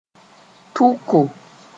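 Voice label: "tʋko", Mooré; standing out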